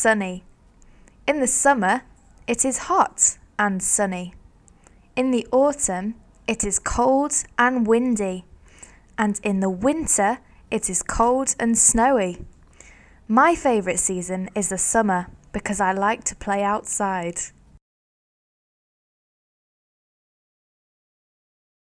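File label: GB weather in Northern England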